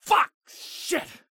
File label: Voice Male Late Thirties Swear Fuck Shit